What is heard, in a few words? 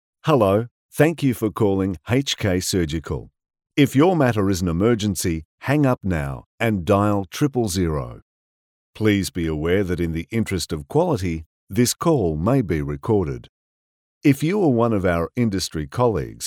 male australian voice over upbeat